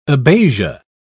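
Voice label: "abasia", British English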